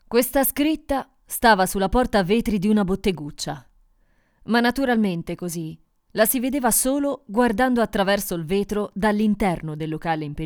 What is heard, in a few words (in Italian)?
Audiolibro; La storia infinita